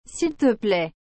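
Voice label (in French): "S'il te plaît", French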